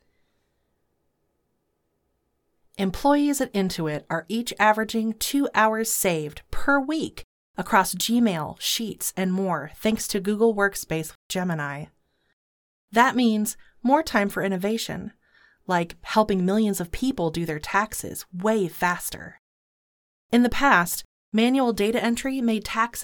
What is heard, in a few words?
audition, female voice talent; Intuit